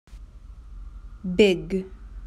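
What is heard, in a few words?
"Big", English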